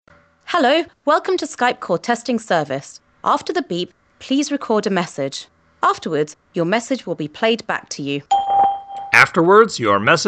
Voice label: Mic Tests